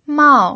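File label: "mao", pinyin, fourth tone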